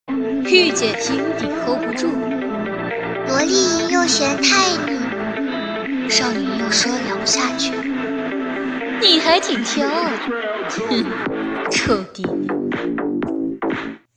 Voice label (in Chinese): 配音